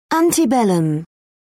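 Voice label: "antebellum", British English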